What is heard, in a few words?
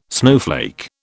"snowflake", British